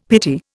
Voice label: "pity", Indian; Dictionary